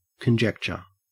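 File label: "conjecture", Australian English; pronunciations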